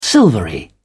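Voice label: "silvery", British English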